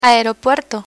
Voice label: "aeropuerto", Spanish; immersion

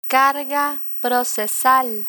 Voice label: "carga procesal", Spanish; legal terminology; learn spanish

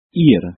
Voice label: "ir", Spanish

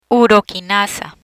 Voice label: "Uroquinasa", Spanish; coagulation modifiers

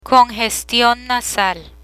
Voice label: "congestion nasal", Spanish; medical

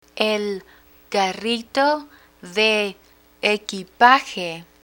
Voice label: el carrito de equipaje, Spanish

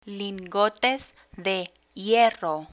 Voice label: "lingotes de hierro", Spanish

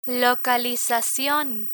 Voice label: "localizacion", Spanish

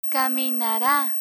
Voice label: "caminara", Spanish; spanish future indicative intro; learn spanish

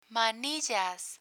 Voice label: "manillas", Spanish; law enforcement equipment; learn spanish

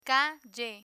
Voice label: "calle", Spanish; dividing words syllables; learn spanish

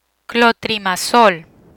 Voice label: "Clotrimazol", Spanish; antifungal agents

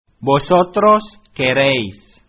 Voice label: "vosotros quereis", Spanish

